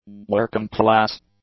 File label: "working-class", english; adjectives